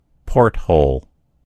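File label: "porthole", American English; gcompris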